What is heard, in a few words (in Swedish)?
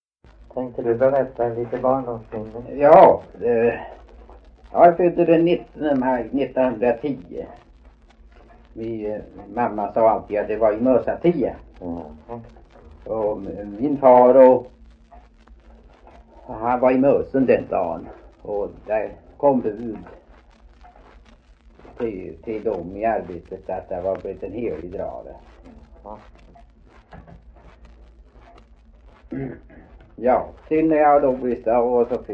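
Veddige Halland, Svenska sagor dialekter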